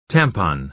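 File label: "tampon", American English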